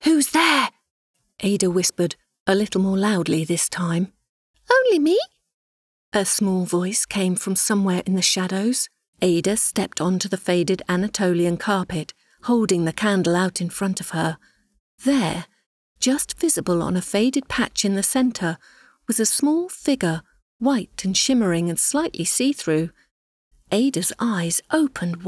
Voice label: Audiobooks

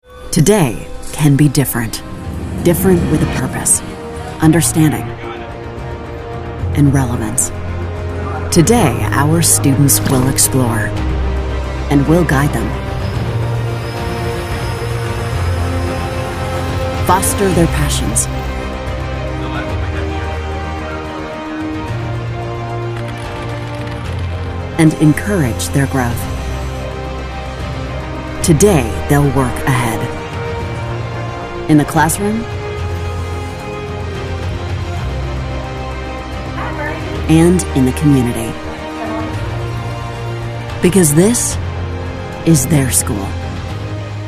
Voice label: Non profit Charity narration

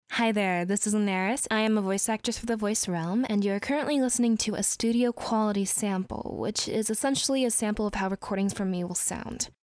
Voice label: Studio Sample

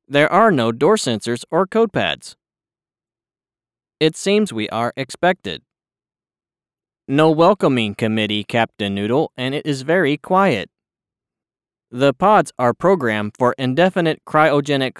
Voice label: robot; Capt Noodle

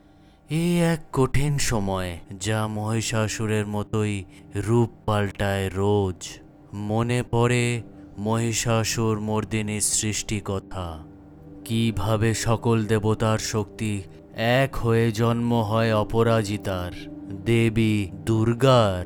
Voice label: Bengali Commercial

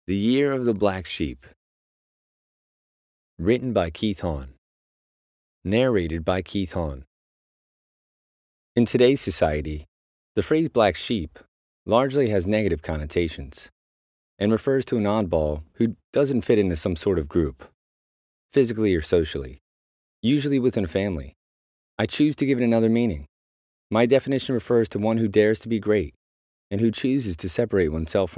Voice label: audiobooks

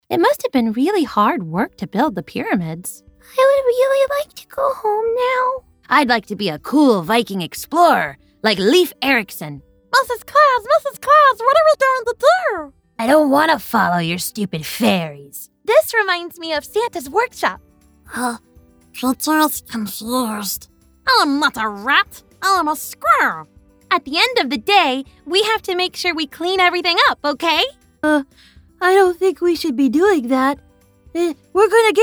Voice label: Cartoon Kid's

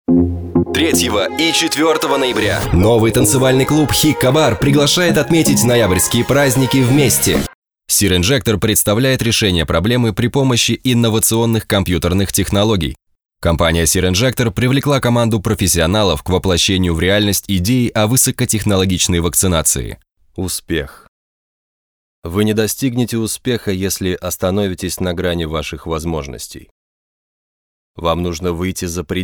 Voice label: Commercial Compilation

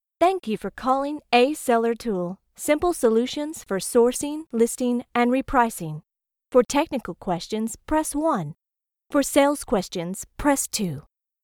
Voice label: Phone Message

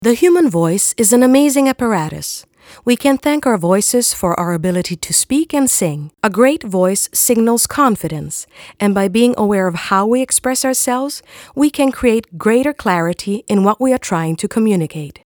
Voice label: ENG

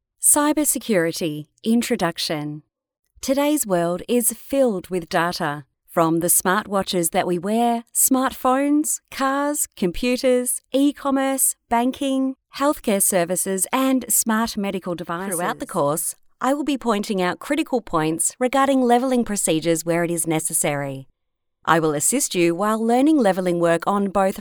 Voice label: eLearning

